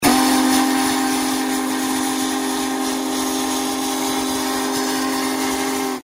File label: Big Boy voice